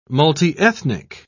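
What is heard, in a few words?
"multiethnic", English, British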